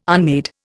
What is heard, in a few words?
"unmade", Indian